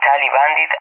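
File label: "tally bandit", English, Spain; DCS; Allied Flight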